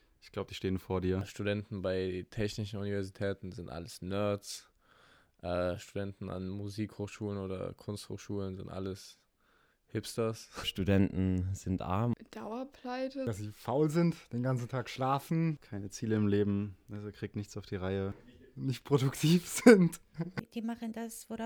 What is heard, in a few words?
Voxpops; vorurteile im studentenleben